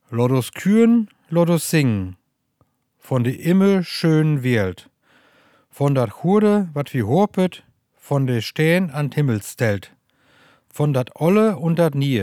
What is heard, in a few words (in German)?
Platt; Rhodepark; Nottuln; Plattdeutscher Weg